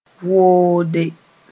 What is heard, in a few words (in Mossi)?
"woode", moore